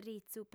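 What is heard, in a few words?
"ritzu", female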